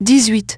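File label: "eighteen", English; iOS 4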